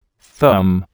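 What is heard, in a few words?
"thumb", English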